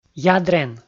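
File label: "ядрен", Komi, male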